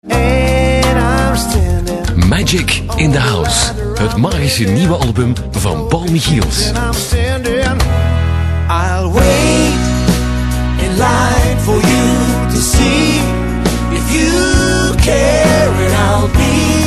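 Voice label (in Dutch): flemish male commercial; dutch; voice demos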